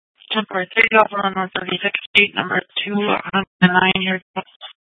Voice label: Police Dispatch, scanner; Phoenix; 700 Mountain View Precinct